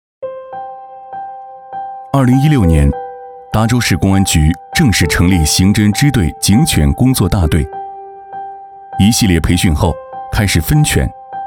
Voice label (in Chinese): 男 走心; 警犬